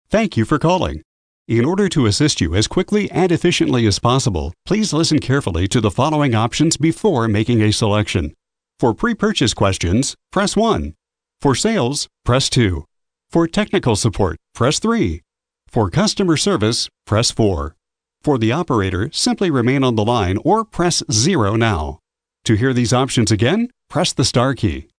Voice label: male ivr, Voice Prompts